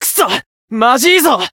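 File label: Voice encounter fear, Japanese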